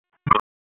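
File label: Police Southeast District Tactical 1, p25; Raleigh; wake p25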